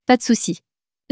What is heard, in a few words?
"pas de souci", french; flashcards